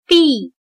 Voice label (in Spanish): "碧", Chino